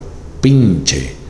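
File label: "PINCHE", Spanish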